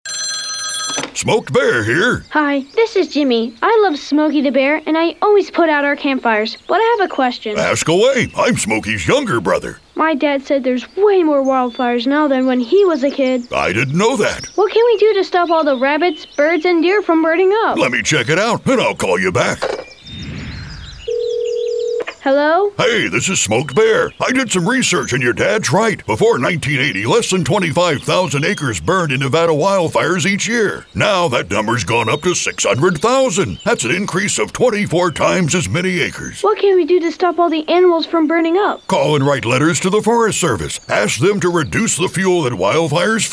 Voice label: Radio Spot; Smoked Bear